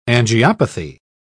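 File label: "angiopathy", British English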